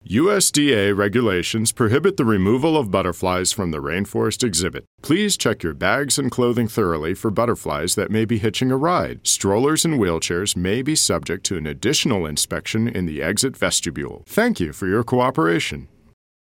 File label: Male Voice Over, Friendly, warm, commanding narration; USDA Rainforest